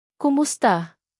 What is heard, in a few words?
"kumusta", tagalog